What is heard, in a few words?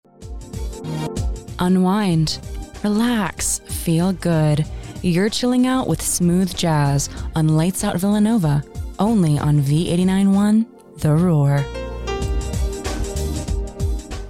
Station Imaging